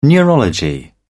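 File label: "neurology", British English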